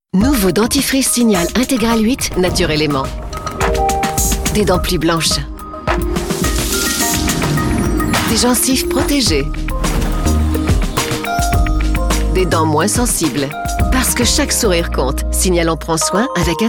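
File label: female French commercial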